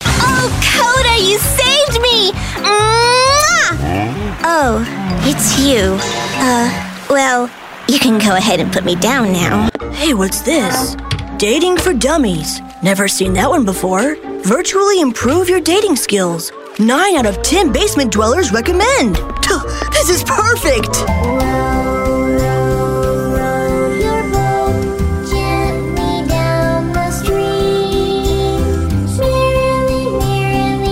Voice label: english american animation

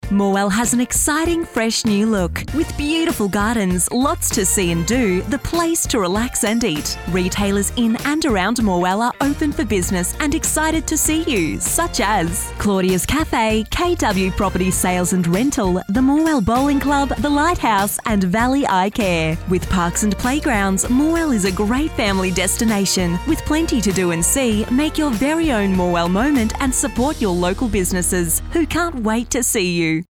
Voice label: english australian commercial; Inglés (Australia)

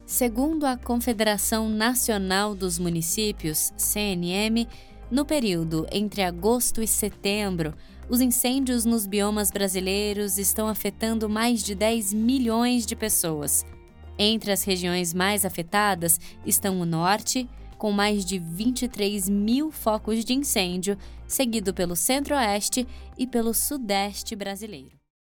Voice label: portuguese brazilian documentaries

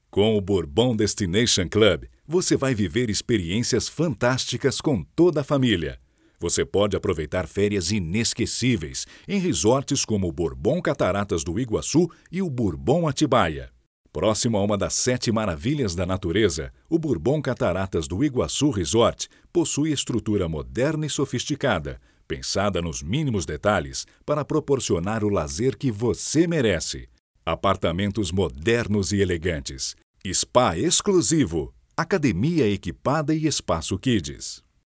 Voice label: portuguese brazilian corporate videos

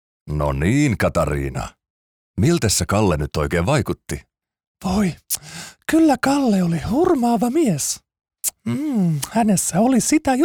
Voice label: finnish cartoon animation

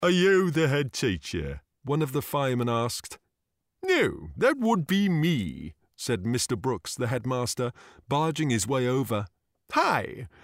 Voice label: English British narration

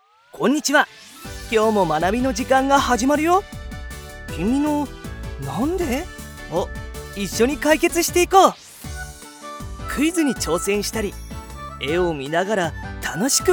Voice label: japanese e-learning, japanese voice actors

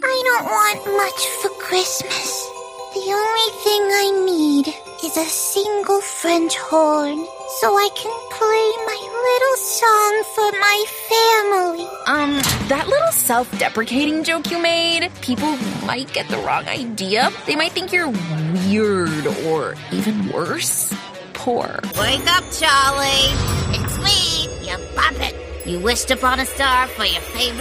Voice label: english american animation; Commercial Demo

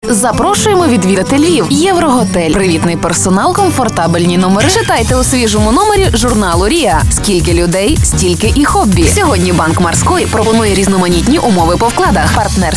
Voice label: ukrainian commercial

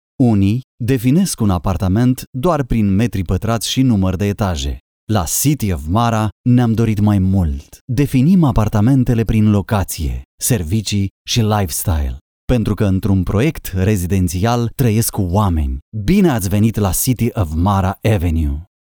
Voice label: romanian documentaries

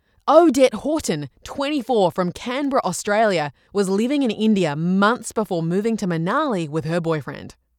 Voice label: english australian political ads

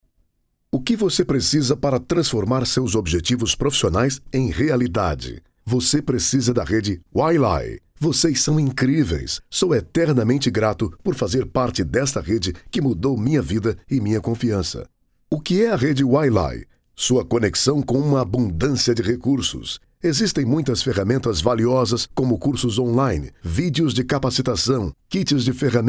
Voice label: portuguese brazilian documentaries

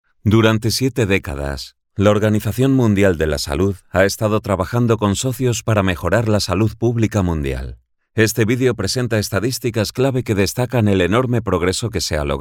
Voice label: spanish documentaries

